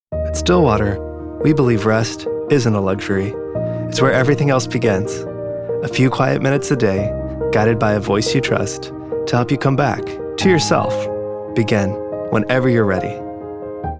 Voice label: wellness brand app, warm present; stillwater